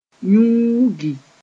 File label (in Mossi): "yũugi", moore